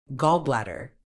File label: "gallbladder", medical English